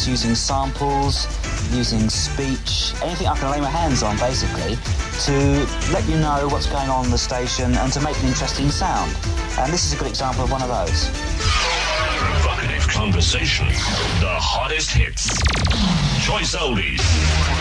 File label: jingle info; wright